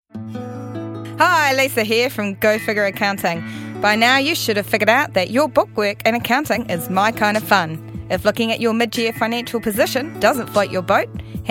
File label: commerical; go fi8ure september 2009